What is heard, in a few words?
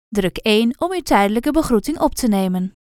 dutch Record Temporary Greeting